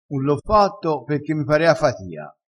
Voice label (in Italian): "parea", crespinese